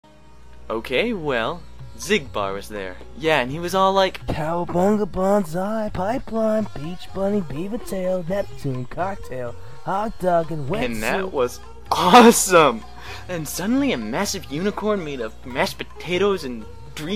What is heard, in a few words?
Commercial